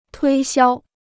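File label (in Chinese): "推销" Chinese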